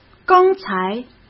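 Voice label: "刚才" Mandarin Chinese; hsk; chinese-flashcards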